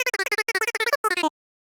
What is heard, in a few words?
tiny clear jolly; voxel audio preview